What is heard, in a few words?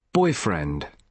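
"boyfriend", British English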